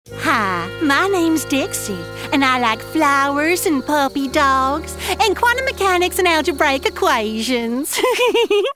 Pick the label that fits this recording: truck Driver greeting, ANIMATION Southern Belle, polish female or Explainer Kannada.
ANIMATION Southern Belle